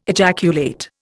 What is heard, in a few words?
"ejaculate", Indian